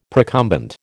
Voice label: "procumbent", American